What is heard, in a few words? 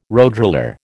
"roadroller", American